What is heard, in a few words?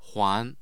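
"huan", Mandarin Chinese, tone 2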